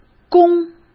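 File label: "功" Mandarin Chinese; hsk; chinese-flashcards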